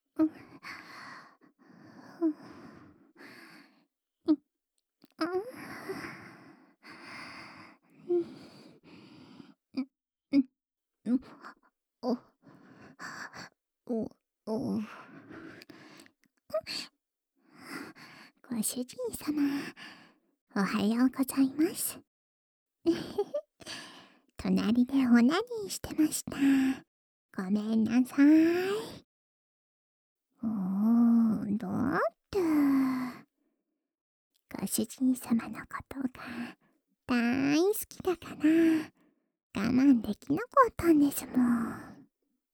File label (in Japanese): おはようアラーム; ルナの特製♪むちゃ甘アラーム音声; ルナリア